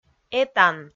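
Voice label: "этан", Komi, female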